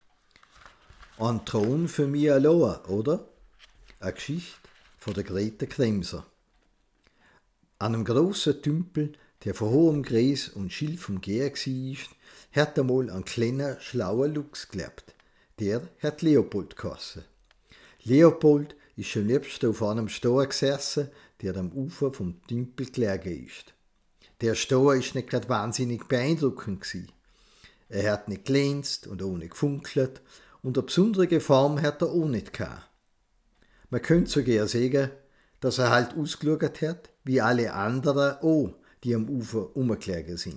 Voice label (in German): Tonaufnahme Dialekt